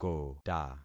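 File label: "kota", concatenated syllables; Affixing experiment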